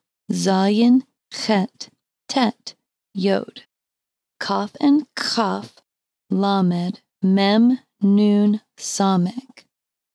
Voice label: Hebrew Aleph Bet